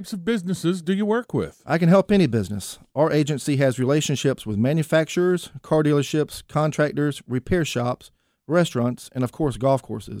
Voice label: insurance commercial

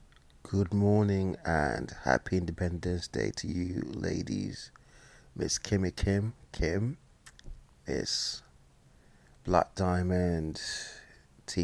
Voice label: voicemail

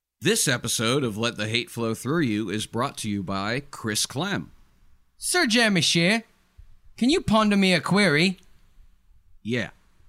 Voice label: commercial

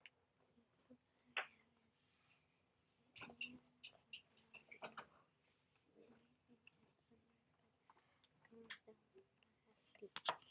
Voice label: character

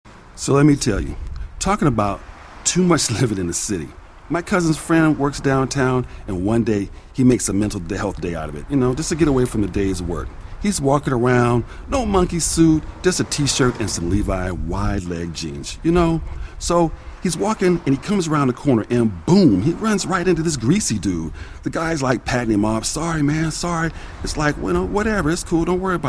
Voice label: voice over; 501 jeans

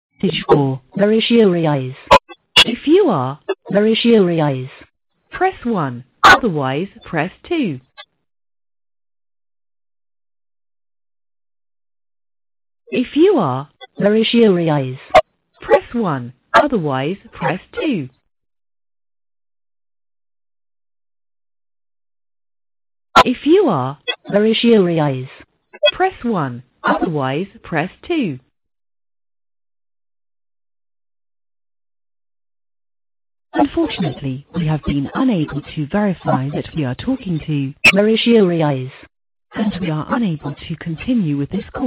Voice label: important voicemail

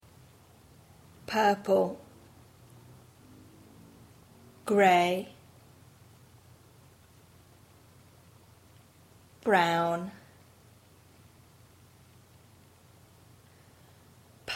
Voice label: purple gray brown with czech

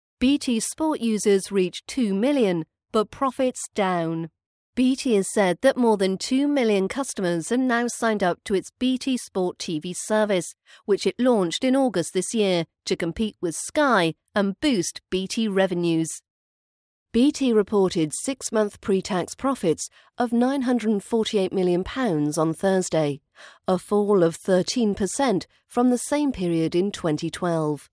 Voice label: recorded news article; bt sport users reach two million but profits down

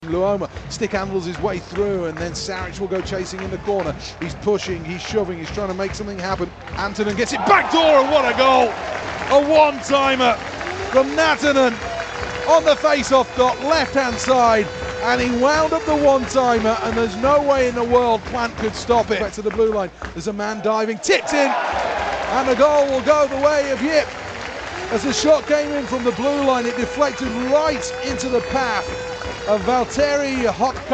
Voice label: bbc radio sheffield commentary; jyvaskyla 3 steelers 0

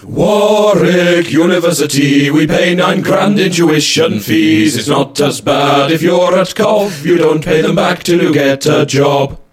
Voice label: banter; varsity build up

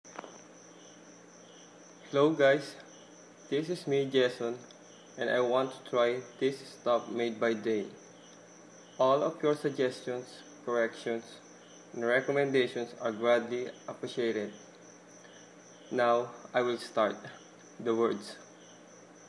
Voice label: accent tag

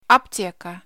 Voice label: pharmacy in russian

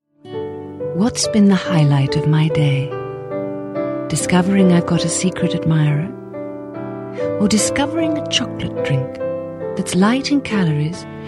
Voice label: voiceover

